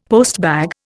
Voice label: "postbag", Indian; Dictionary

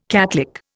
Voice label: "catlick", Indian

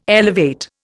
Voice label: "elevate", Indian

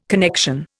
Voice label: "connection", Indian

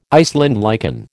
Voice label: "Iceland lichen", American

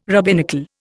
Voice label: "rabbinical", Indian

